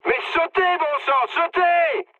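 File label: French bailout mood high; War Thunder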